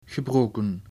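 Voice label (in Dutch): "gebroken", Dutch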